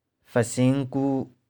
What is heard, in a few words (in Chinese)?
"feh4shing1ku3", 玉環